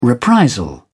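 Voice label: "reprisal", British English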